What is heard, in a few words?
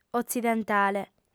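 "otzidentale", Sardinian, female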